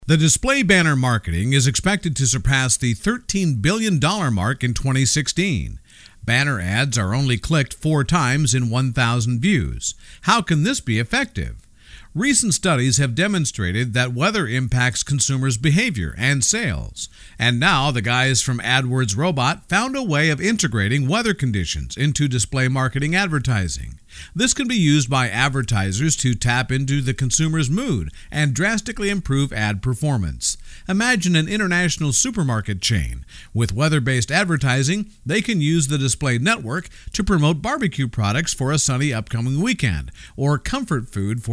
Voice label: USA